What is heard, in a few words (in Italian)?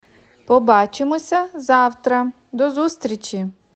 Ucraino